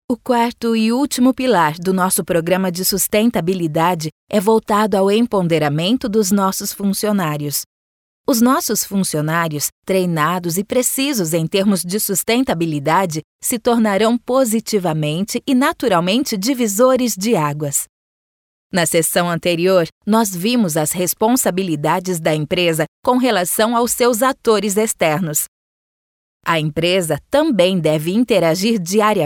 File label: E-LEARNING